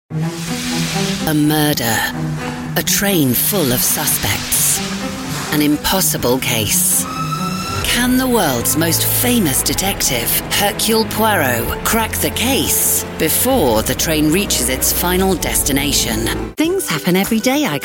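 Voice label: Strong Warm Voice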